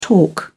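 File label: "torque", English, British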